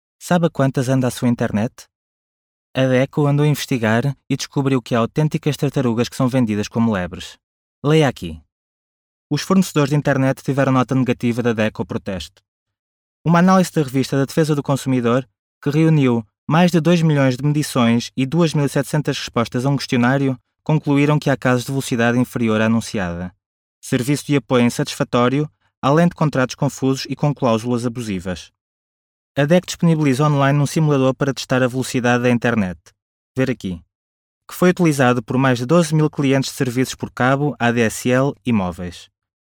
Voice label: Nachvertonung Film Sprecher Portugiesisch

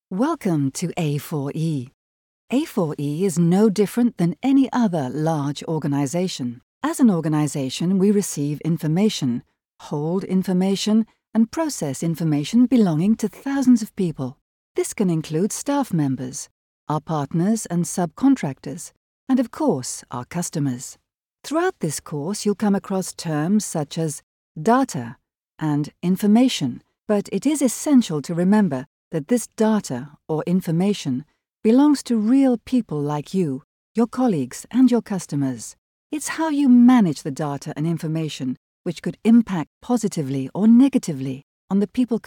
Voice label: Sprecherin Englisch UK; Nachvertonung Film

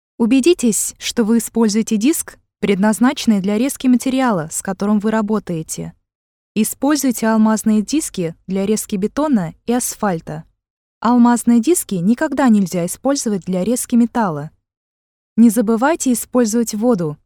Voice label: Sprecherin Russisch; Nachvertonung Film

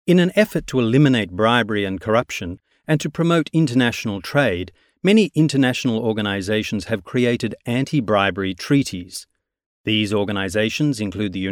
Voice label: Sprecher Englisch; Nachvertonung Film

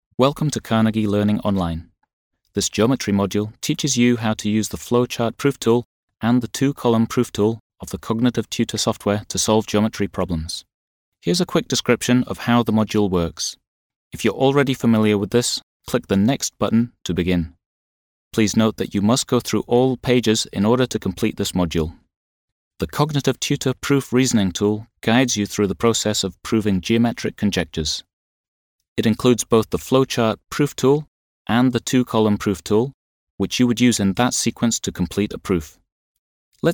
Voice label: Sprecher Englisch UK; Nachvertonung Film

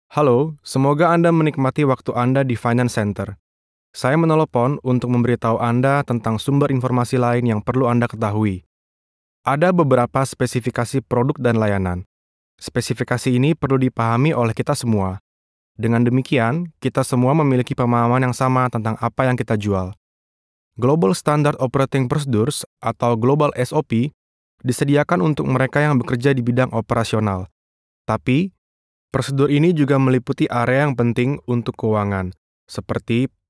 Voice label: Nachvertonung Film Sprecher Indonesisch

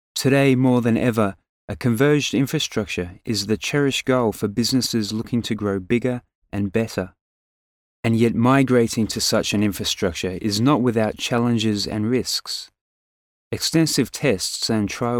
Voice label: Nachvertonung Film Sprecher Englisch Australien

